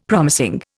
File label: "promising", Indian; Dictionary